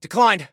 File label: Command Declined, Soldier Male; Soldier Male 01